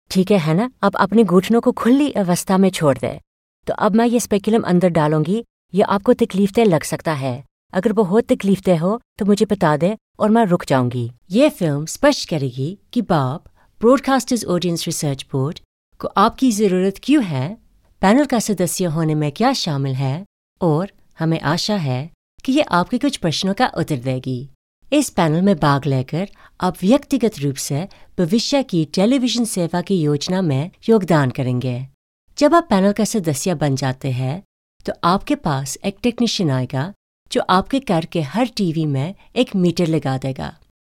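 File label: Hindi, General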